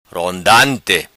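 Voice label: "Rondante", patua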